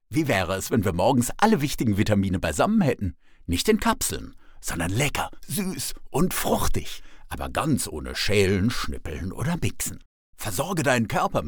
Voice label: male german voice over upbeat

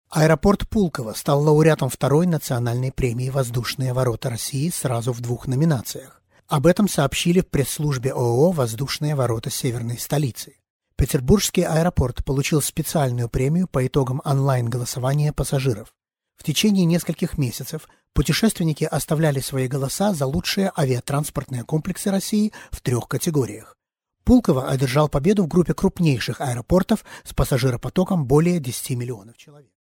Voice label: male russian voice over talent character

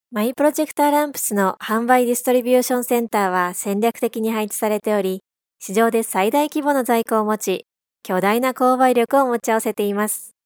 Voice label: female japanese voice over narrative

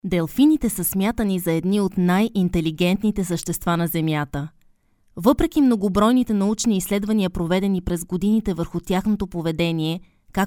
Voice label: female bulgarian voice over upbeat

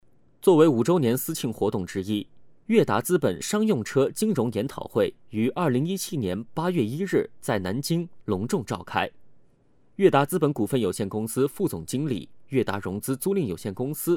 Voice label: male chinese voice over talent narrative

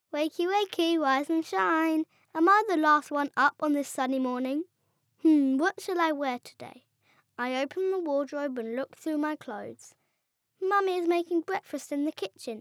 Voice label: boy british voice over character; english british

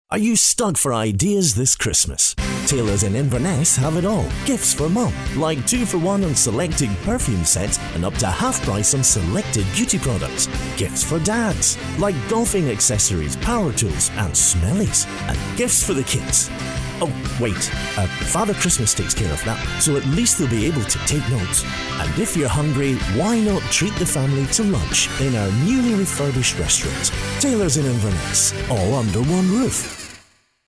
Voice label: male scottish voice over sales

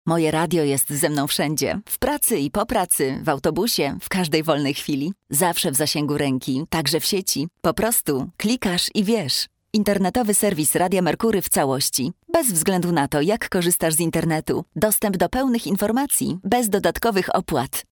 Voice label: female polish voice over sales

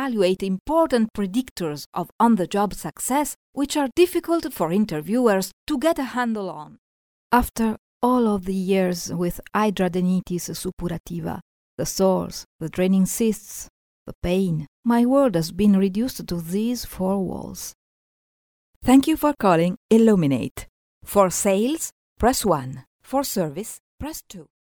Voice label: female italian voice over english

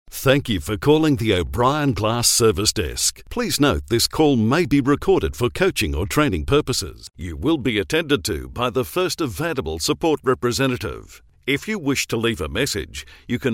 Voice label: male australian voice over upbeat

